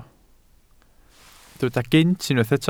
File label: "gynt", Welsh